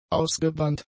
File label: "ausgebrannt", German; adjectives